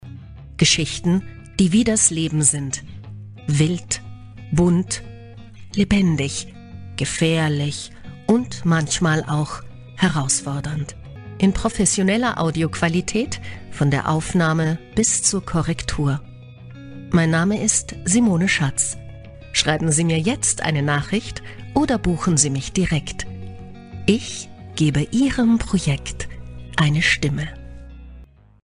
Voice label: 德语女声

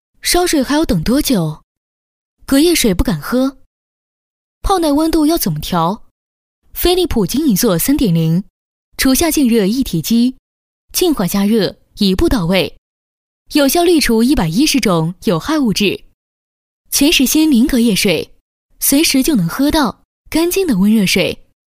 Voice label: 女 品牌广告; 科技时尚; 飞利浦

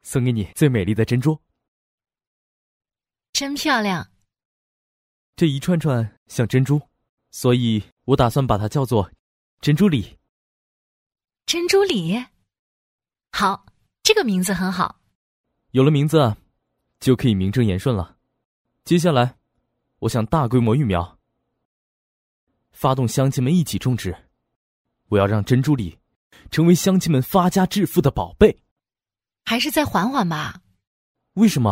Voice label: 情侣 男 女; 质感磁性

